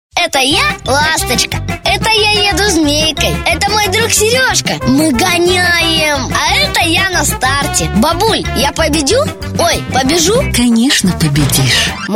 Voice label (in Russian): detskie golosa